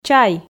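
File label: "чай", Komi, female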